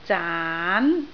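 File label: "zaan2" Cantonese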